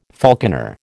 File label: "falconer", American